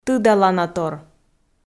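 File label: "тыдаланатор", Komi, female; audio dict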